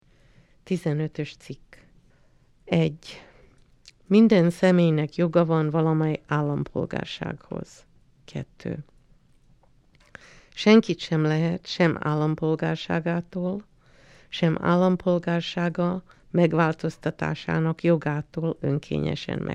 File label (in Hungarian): Magyar